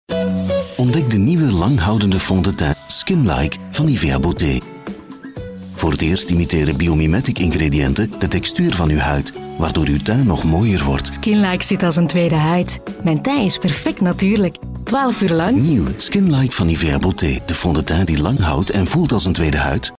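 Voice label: flemish male commercial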